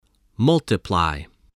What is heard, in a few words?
"multiply", voice